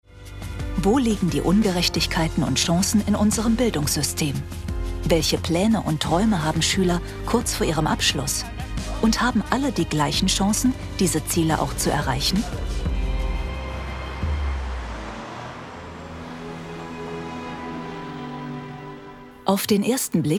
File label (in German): doku off opener schule; Sprecher in Berlin, Bremen, Hamburg, Hannover, Kiel, Lübeck, Lüneburg